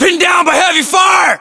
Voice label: US inform suppressed generic, battlechatter, english; localized english